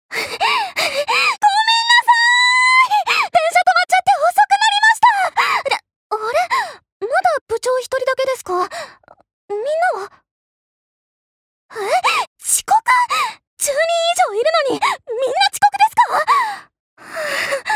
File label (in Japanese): アニメ